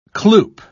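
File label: "cloop", British English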